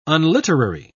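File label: "unliterary", English, British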